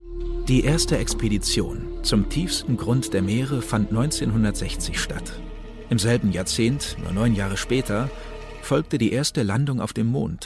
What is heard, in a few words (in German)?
Doku, German; Doku - Tiefsee